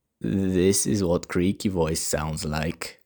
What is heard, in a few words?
Creaky Voice; Linguistics; Phonetics; Speech Production